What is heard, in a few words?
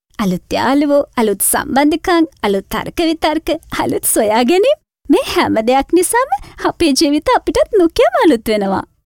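Sinhala female voice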